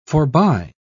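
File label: "forbye", en, uk British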